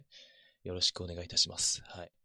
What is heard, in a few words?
binaural yoroshiku